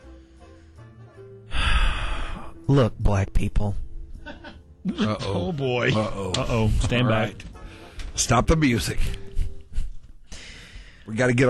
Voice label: public announcement